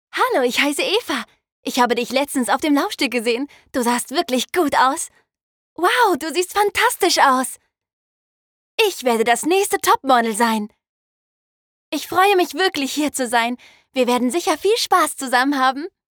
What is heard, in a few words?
cheerful